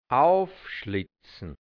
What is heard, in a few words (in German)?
"aufschlitzn", hianzisch; aufschlitzen; aufschneiden; angeben